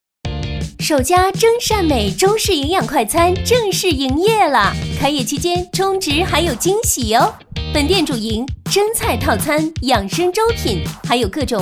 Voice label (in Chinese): 女 蒸膳美（欢快激情）